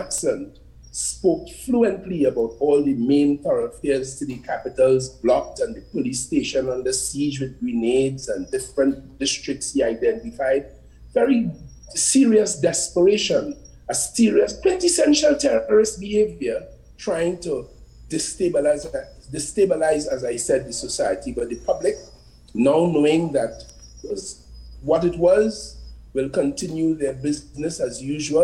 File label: VOICE NOTE